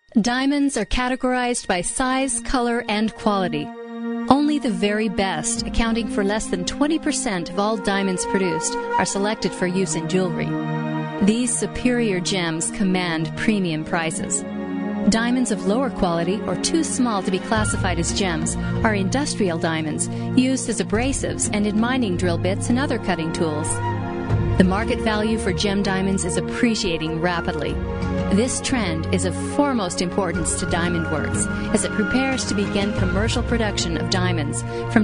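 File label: narration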